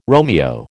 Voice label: "Romeo", American